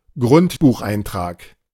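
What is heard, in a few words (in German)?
"Grundbucheintrag", German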